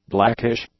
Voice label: "blackish", English; adjectives